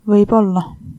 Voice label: maybe, estonian